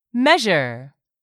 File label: "measure", English; 3000 words